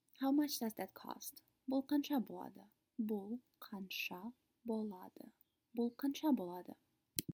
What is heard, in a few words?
How much does that cost, kazakh